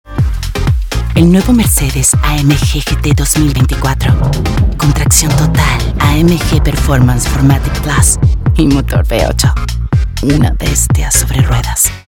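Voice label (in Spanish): provocativa excitante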